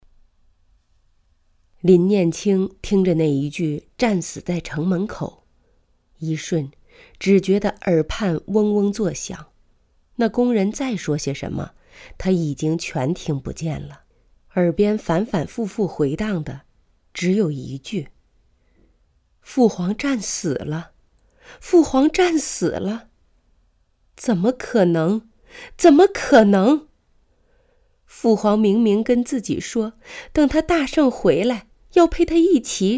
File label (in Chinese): 小说旁白